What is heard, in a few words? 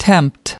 "tempt", us